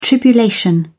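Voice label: "tribulation", British English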